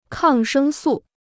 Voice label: "抗生素", Chinese

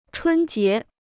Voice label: "春节", Chinese

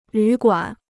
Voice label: "旅馆", Chinese

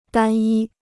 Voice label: "单一", Chinese

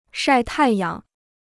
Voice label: "晒太阳", Chinese

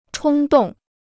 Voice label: "冲动", Chinese

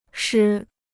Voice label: "诗", Chinese

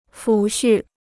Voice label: "抚恤", Chinese

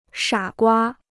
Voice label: "傻瓜", Chinese

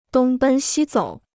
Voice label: "东奔西走", Chinese

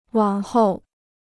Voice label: "往后", Chinese

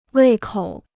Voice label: "胃口", Chinese

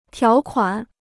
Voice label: "条款", Chinese

